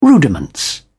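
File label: "rudiments", English, British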